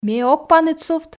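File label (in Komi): ме ог паныд сувт, Komi, female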